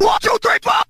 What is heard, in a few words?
"1 2 3 4" metal guy; Voice; numbers and letters